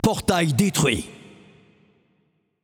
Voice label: Announcer French Match Destroyed Gate